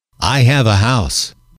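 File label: "I have a house", English, male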